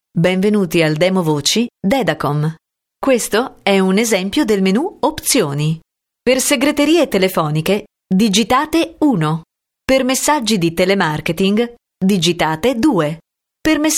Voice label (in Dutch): Voice over Italiaans vrouw